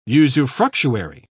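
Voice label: "usufructuary", British English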